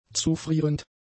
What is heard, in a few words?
"zufrierend", German; adjectives